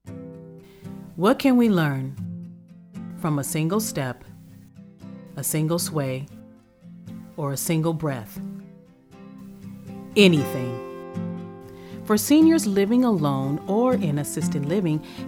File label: VO music; Collie